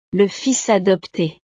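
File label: "le fils adopte", French; familia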